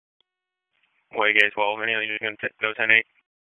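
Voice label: Sheriff's Office Dispatch 1, p25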